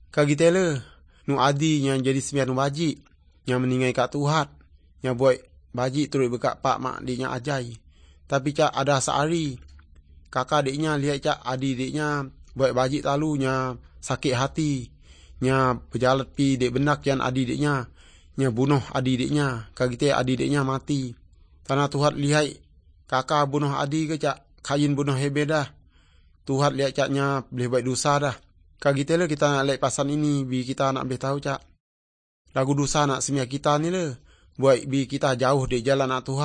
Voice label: good news, urak lawoi